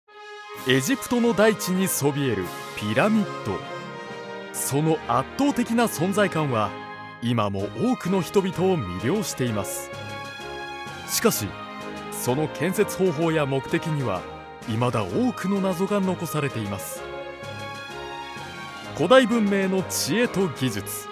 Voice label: ドキュメンタリー番組

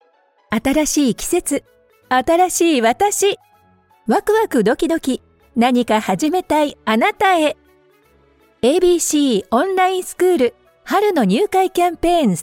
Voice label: ボイスサンプル 明るいCM

